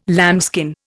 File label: "lambskin", Indian